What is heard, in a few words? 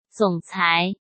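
"总裁", Mandarin Chinese; hsk; chinese flashcards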